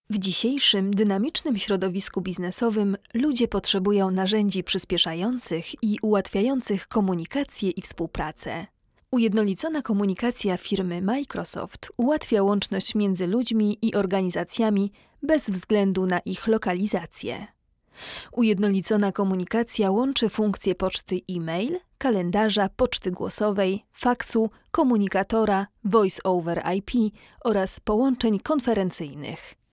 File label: polish training e-learning